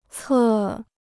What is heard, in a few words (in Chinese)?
"侧", Chinese